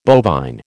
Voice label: "bovine", American